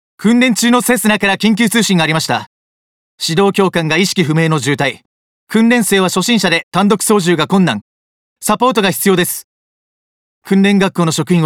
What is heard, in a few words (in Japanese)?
管制官40代